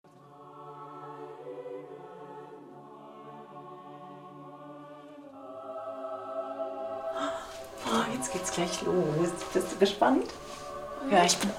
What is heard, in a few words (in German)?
hoerspiel